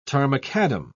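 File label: "tarmacadam", British English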